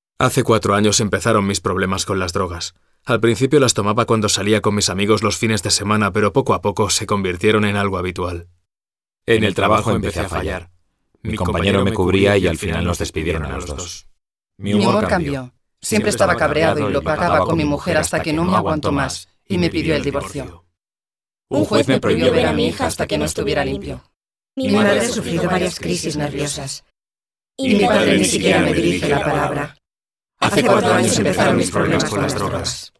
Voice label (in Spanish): Cadena Castellano; Campañas Fad